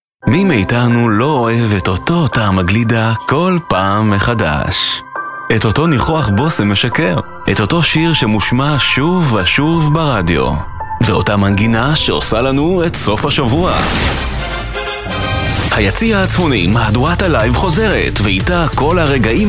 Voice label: hebrew promos